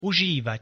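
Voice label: "užívať", Slovak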